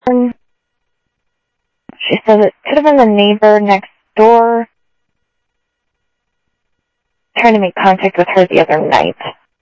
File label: Sheriff, scanner audio